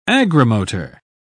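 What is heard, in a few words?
"agrimotor", British English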